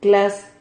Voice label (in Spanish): "clas", Silabas